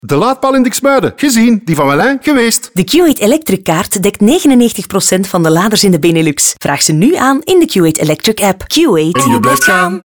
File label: NL Radio; 10s; Benelux